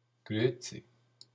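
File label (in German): "gruezi", Swiss German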